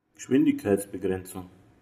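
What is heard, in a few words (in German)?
"Geschwindigkeitsbegrenzung", German; Bayern (München)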